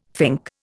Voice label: "fink", Indian